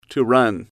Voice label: "to run", English

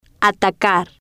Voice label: "atacar", spanish

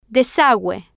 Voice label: "desague", Spanish

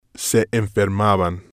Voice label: "se enfermaban", spanish

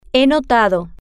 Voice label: "he notado", Spanish

